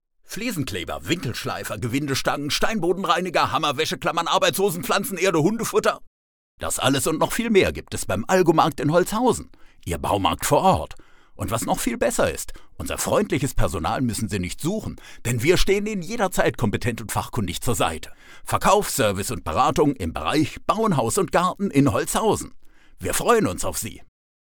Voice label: male german voice over talent sales